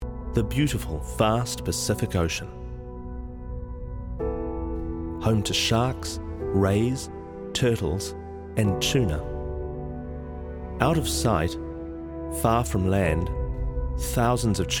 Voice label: english new zealand documentaries